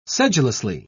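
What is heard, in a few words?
"sedulously", British English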